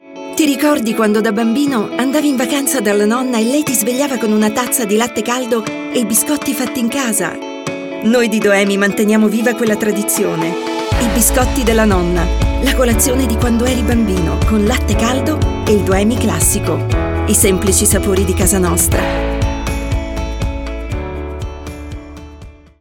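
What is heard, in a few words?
Italian commercial; GoLocalise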